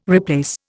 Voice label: "replace", Indian